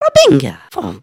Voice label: group talk; emotes